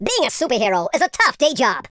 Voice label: "fatality", super hero speech